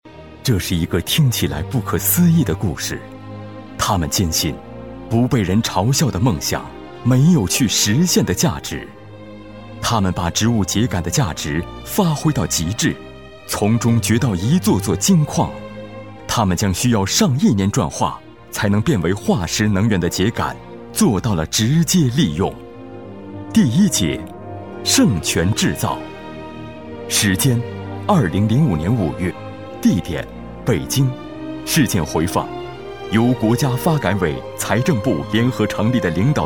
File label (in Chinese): 男 专题 讲叙风格 秸秆利用